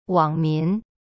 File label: "wang3min2", Chinese; cyberchinese